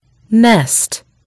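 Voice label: "nest", British English; gcompris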